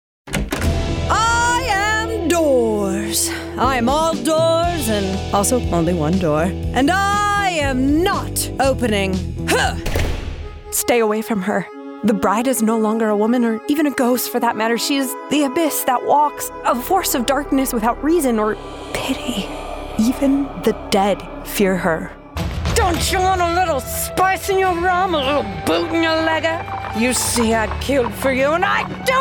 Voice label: Animation; voice over